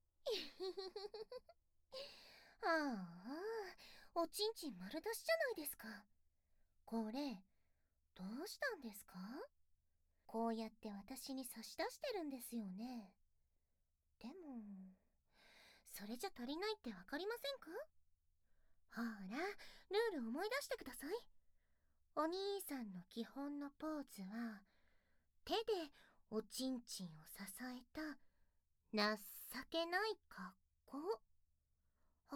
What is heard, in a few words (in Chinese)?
捉迷藏自慰 普通, ASMR